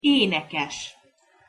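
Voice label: "enekes", Hungarian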